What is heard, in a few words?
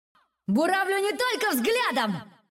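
Russian; 探奇（守望先锋2）; 终极技能; Буравлю не только взглядом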